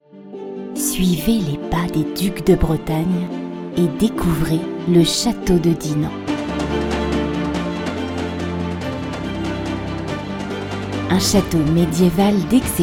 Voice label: french commercial; Französisch; Frankreich

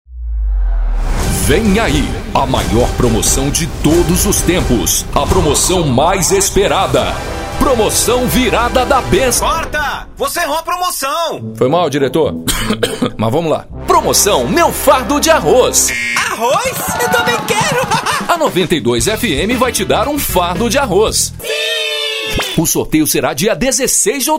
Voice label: portuguese brazilian promos

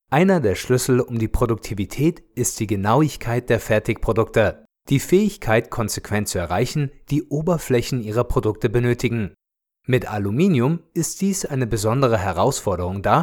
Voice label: german documentaries

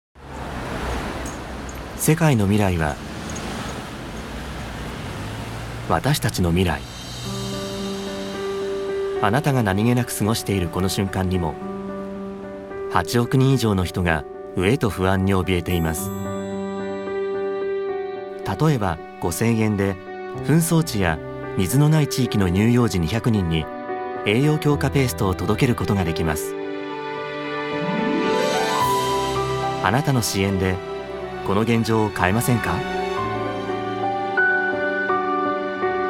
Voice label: japanese others

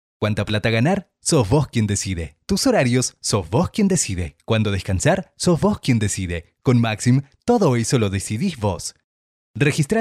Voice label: spanish latin american promos

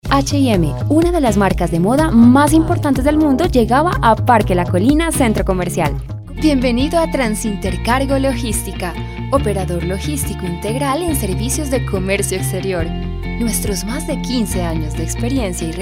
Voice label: Spanish Colombian corporate videos; Voz versátil y expresiva, hablante nativa de español

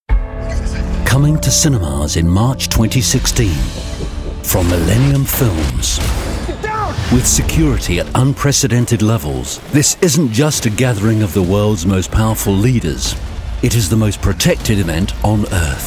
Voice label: english british commercial; Englisch (Britisch)

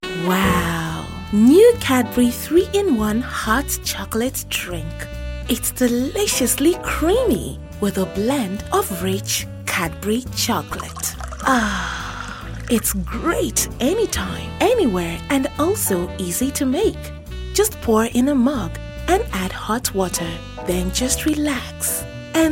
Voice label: english african commercial

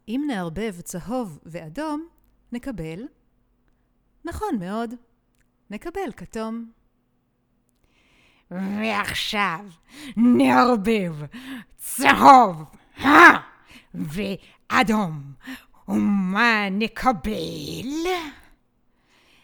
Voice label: hebrew animation

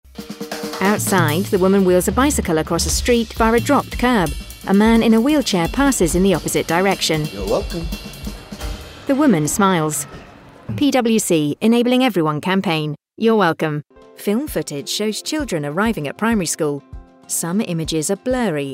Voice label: english british others

